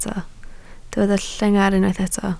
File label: "llengar", Welsh